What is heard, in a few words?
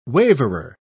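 "waverer", British English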